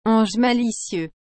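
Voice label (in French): "ange malicieux", French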